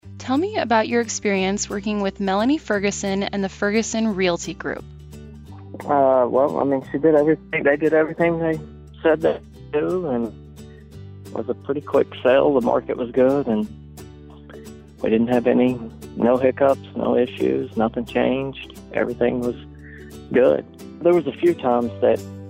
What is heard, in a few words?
Testimonial